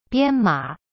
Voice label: "bian1ma3", Chinese; cyberchinese

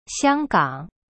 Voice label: "香港" xiang gang, chinese; cyberchinese

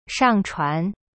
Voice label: "上传" shang chuan, chinese; cyberchinese

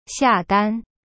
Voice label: "下单", Chinese; xia dan

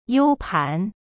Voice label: "you1pan2", Chinese; cyberchinese

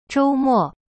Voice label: "周末" zhou mo, chinese

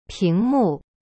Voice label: "ping2mu4", Chinese; cyberchinese

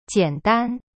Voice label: "简单", Chinese; jian dan

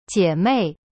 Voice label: "姐妹" jie mei, chinese

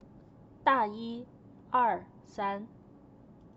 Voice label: "大一二三" da yi ersan, chinese; cyberchinese

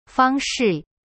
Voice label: "方式" fang shi, chinese; cyberchinese

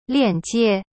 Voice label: "lian4jie1", Chinese; cyberchinese

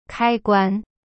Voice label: "kai1guan1", Chinese; cyberchinese

